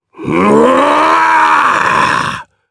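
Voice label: Vox Casting Japanese; Phillop; kings raid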